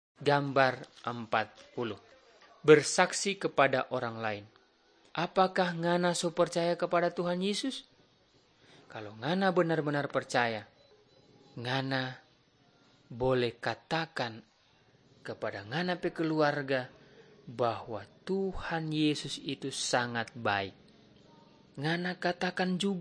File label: malay manado; good news